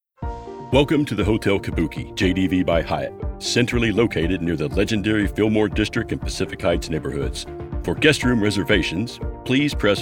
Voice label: Telephony Hotel; Kabuki